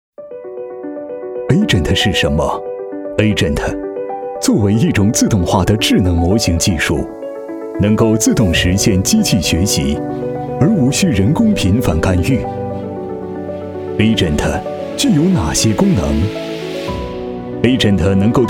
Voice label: 男 PPT汇报 科技 Agent自动化的智能模型技术

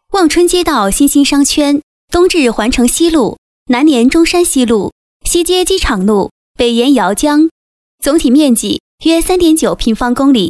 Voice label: 女 专题; 新星商圈

